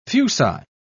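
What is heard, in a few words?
"fuci", British English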